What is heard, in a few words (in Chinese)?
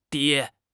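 "跌", Mandarin Chinese; HSK6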